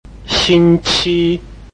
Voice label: "xing1qi1", Chinese